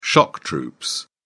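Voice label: "shock troops", British English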